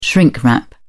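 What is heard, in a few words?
"shrink-wrap", British English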